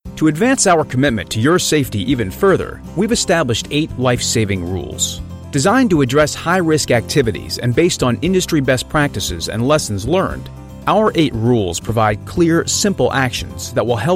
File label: English US, M, eLearning, american